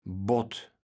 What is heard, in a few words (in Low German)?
"bott", kaevels platt